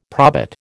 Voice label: "probit", American